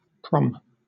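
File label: "prom", British English; pronunciations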